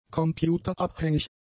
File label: "computerabhängig", German; adjectives